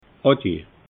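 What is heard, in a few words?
"adje", Alsatian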